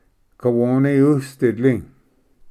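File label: "kawoni usditli", Cherokee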